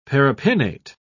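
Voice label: "paripinnate", British English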